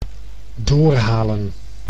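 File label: "doorhalen", Dutch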